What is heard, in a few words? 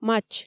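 "матч", Komi, female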